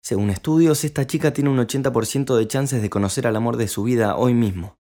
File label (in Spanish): Linea, Espanol